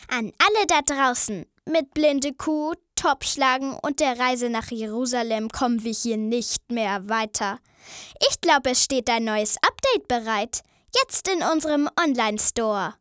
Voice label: maedchen

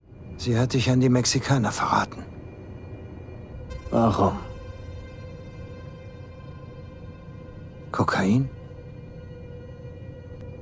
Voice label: Synchron, maenner; Too Old to Die Young

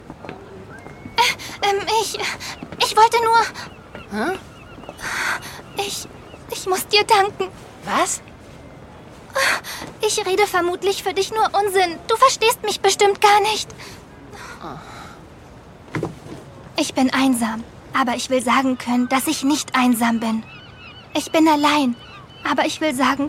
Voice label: Synchron, frauen; Carole und Tuesday

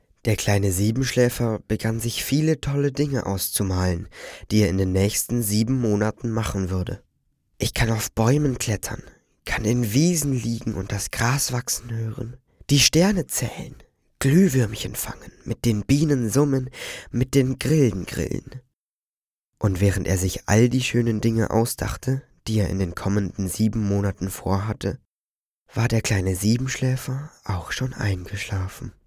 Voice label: jungen; Siebenschläfer

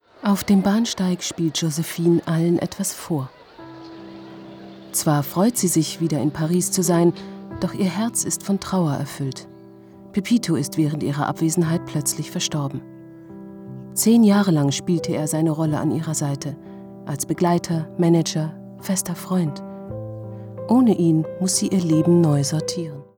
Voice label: Kommentar, frauen; Josephine Baker Ikone der Befreiung

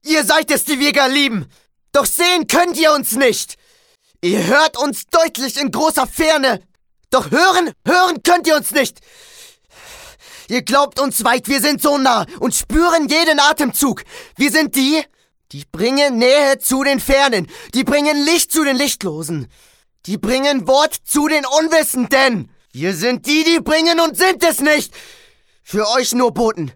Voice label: aggressiv

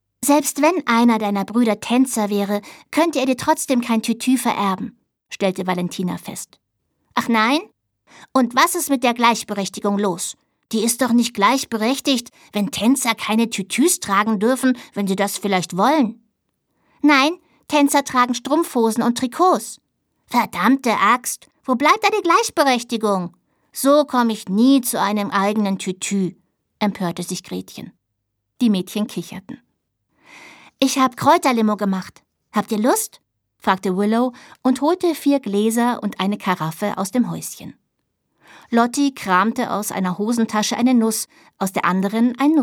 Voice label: Hörbuch, frauen; Ein Mädchen Namens Willow

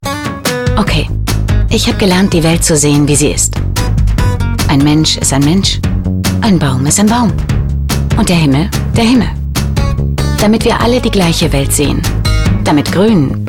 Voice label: Imagefilm, Trailer, frauen; sprecher; ZDFneo

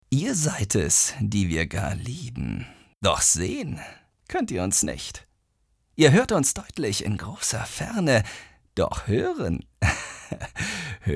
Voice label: psycho, maenner

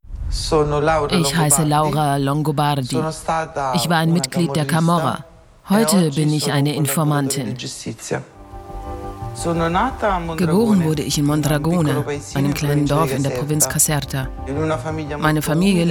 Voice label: Voice Over, frauen; Mafia Bräute

